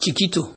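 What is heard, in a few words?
"chikito", Nigerian Pidgin, male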